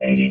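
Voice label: "eighty", robot voice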